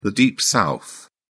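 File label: "deep south", British English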